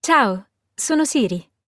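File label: Italian, Italy; iOS Sounds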